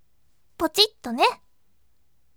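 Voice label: "pochittone", bright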